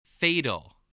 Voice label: "fatal", voice

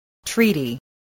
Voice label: "treaty", voice

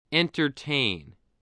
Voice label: "entertain", voice, english

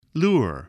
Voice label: "lure", spelling voice

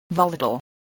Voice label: "volatile", English

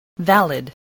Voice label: "valid", English

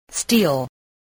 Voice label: "steel", voice spelling

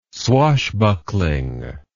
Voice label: "swashbuckling", English word

